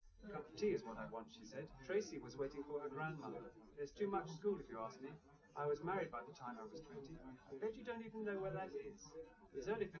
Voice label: test male live rec; SiSEC11; underdetermined

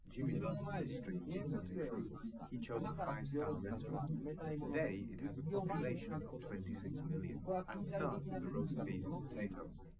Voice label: male liverec 250ms 5cm; SiSEC08; SiSEC underdetermined

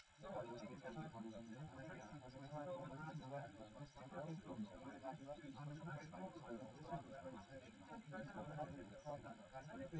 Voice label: male live rec 250ms 1m sim; SiSEC11; underdetermined

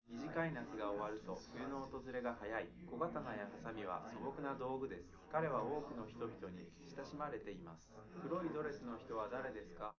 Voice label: male liverec; SiSEC11; underdetermined

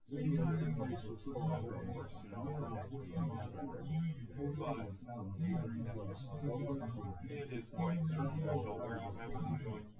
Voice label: male; SiSEC08; SiSEC underdetermined

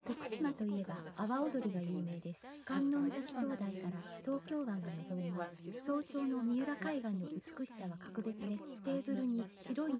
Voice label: female inst

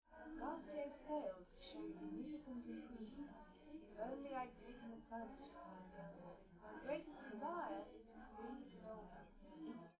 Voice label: test female live rec; SiSEC11; underdetermined

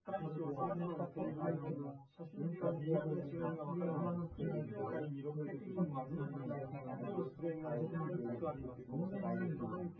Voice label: male synthconv

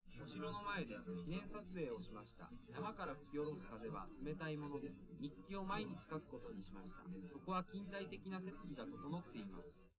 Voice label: test male live rec; SiSEC11; underdetermined

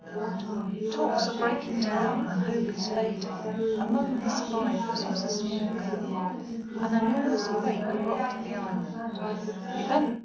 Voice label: female4 380ms 5cm, underdetermined; SiSEC11

